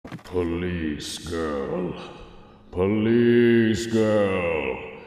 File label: police girl